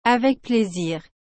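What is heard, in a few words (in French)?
"Avec plaisir.", French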